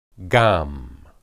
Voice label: "gam", Hebrew